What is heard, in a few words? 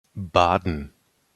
pronunciation de baden